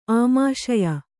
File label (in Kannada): "ಆಮಾಶಯ", Kannada